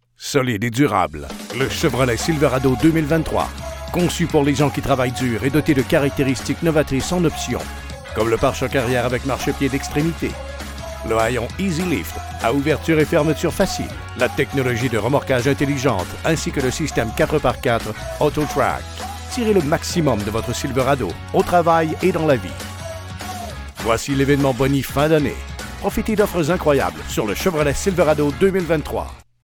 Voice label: french canadian automotive